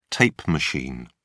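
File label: "tape machine", British English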